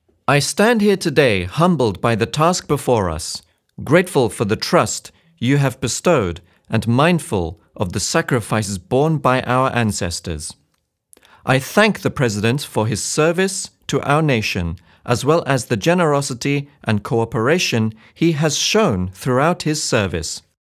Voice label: ENGLISH VOX SPEECH EXAMPLE